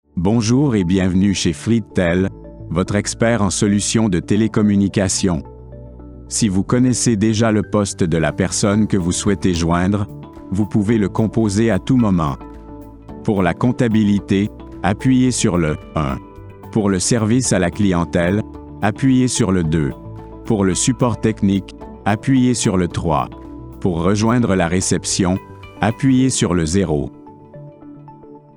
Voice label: DEEP VOICE MUSIC